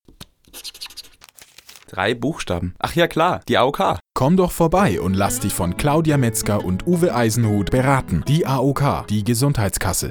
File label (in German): Werbung Reminder Mit Musikbett; AOK